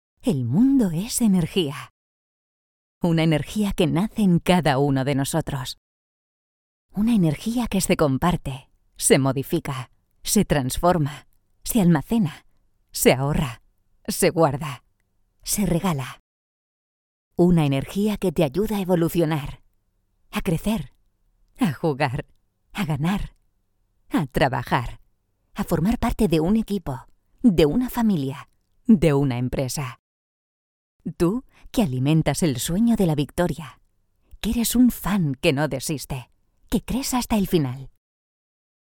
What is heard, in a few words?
Spanish Presentation Corporative Warm